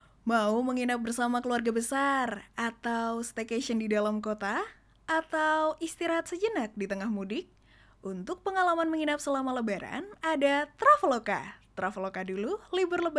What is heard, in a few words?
CASUAL INDO